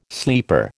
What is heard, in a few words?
"sleeper", American